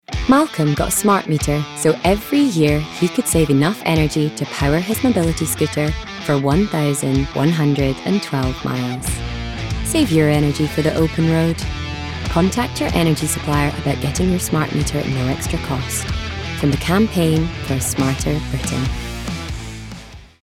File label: Natural, Clear, Helpful; Smart Energy